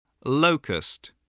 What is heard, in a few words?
"locust", voice